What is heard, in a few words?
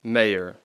"mayor", English; Y (consonant sound); Speech Pro